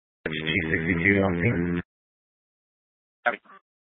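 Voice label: Incident Tac 2, Scanner audio recording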